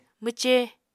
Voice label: "mwce", Apatani